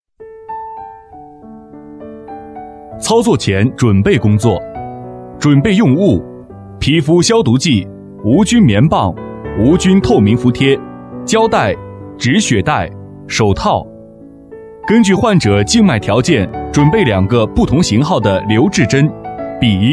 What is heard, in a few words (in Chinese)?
男, 操作解说